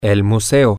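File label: the museum, spanish, male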